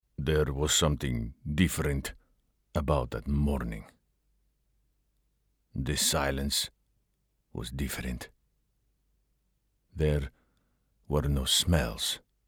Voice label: Arabic accent, voiceover; ASAdventure